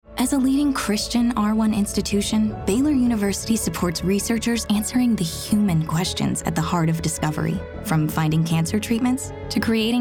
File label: Radio; Baylor Heart of Research